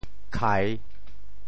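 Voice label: "khai3", Teochew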